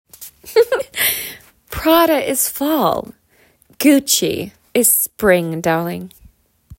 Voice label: Upper East NYC

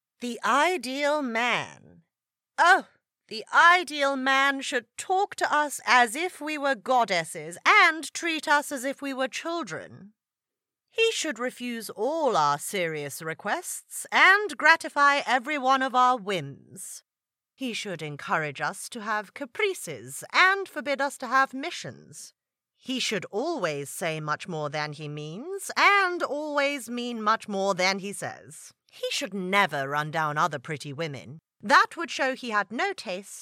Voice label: Heightened RP; A Woman of No Importance

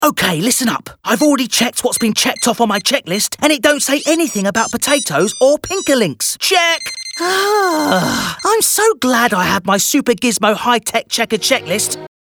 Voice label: Enthusiastic, Imaginative, Energetic; characters animation; Pinkalinks

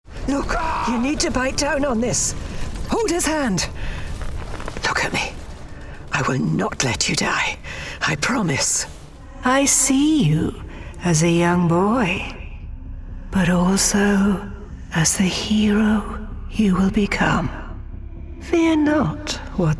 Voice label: Audio Drama reel; Gaming Reel; AAA

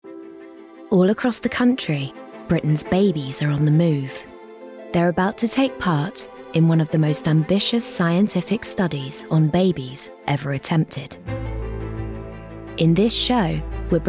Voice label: Documentary reel

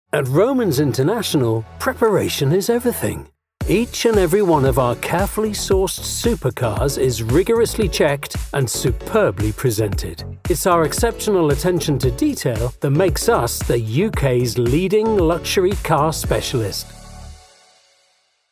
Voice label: commercial; ROMANS INTERNATIONAL

